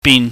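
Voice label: "bin", Cantonese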